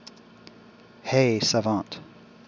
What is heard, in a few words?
hey savant, wake word, en